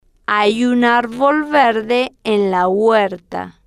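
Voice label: hay Un Arbol Verde En La Huerta, Spanish, female, slow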